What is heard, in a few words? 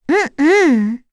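Vox Deny, voices heroes English; kingsraid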